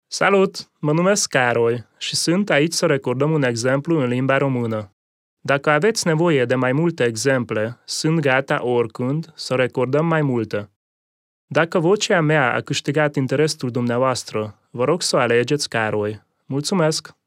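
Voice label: Romanian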